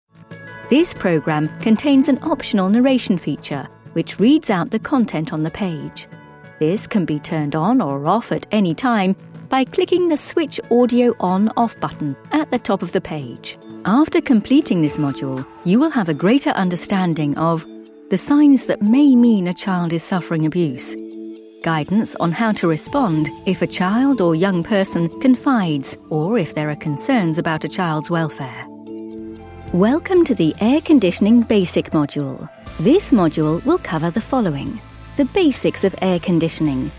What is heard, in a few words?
Mature Female, elearning voices